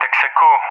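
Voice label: "Texaco", English speech; CallSign; Allied Flight; DCS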